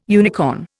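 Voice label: "unicorn", Indian English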